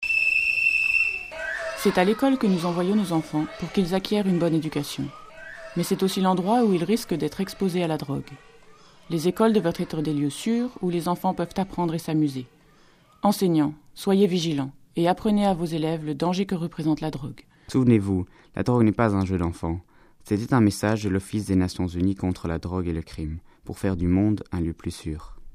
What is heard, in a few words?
French